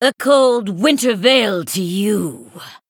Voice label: Female San'layn happy holidays